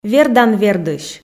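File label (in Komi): "вердан-вердысь", Komi, female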